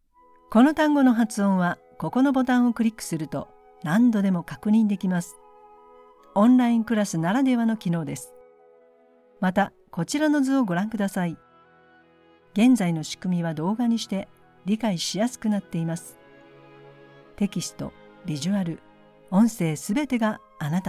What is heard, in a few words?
Japanese Native